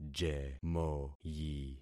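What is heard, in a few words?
"jemoyi", concatenated syllables; Affixing experiment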